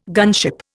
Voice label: "gunship", Indian